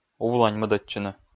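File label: "увлань мӧдӧдчыны", Komi, male; kpv audio dict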